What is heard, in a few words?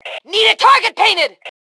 Target Need, Female